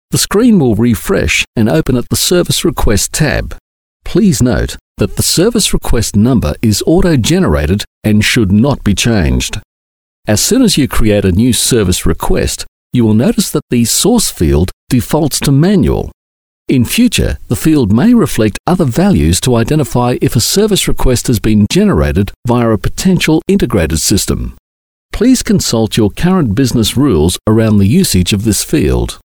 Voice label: Male Talent corporate